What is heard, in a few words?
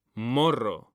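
"morro", Spanish; mekanta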